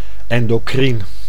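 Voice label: "endocrien", Dutch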